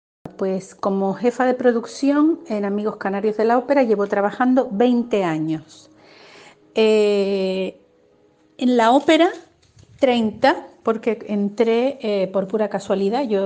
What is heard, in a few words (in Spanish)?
ENTREVISTA AUDIO